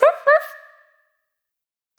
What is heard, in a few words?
Chien Chaud, Vocal Samples; Words